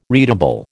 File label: "readable", American